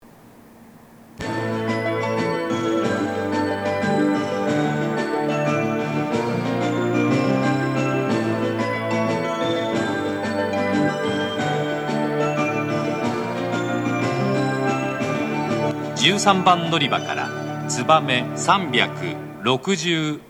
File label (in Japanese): 13番のりば発車放送, 新幹線ホーム; 筑後船小屋; 13番のりば発車放送（つばめ365号 熊本行き）